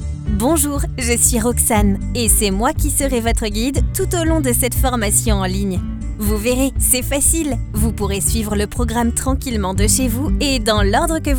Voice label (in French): MEDLEY E-learning Voix feminine